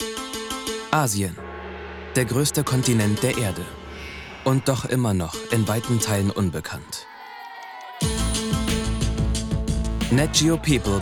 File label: Trailer; Natego; Asia